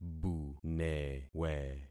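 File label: "bunewe", concatenated syllables; Affixing experiment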